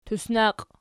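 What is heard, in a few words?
"tusnaqi" nominative, Archi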